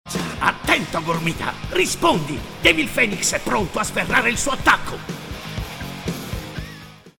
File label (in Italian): ITALIANO DINAMICA; FEMMINILE